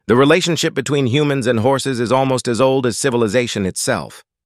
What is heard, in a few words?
us male